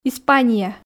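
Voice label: "испания", Komi, female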